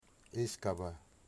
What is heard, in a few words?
"tree", Wamut